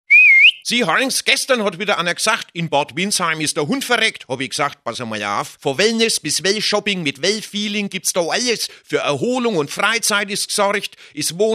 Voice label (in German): Radio Spots; Bad Windsheim